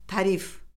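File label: "tarif", Azerbaijani; Orfoqrafiya Lüğəti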